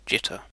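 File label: "jitter", British English; pronunciations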